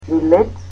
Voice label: "ticket", Macedonian

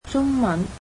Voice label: "Chinese", Cantonese

